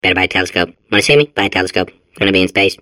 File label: babble; portal2